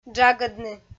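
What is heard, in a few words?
"джагӧдны", Komi, female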